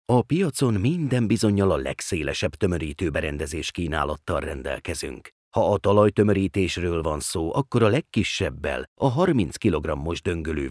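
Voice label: corporativo, Hungarian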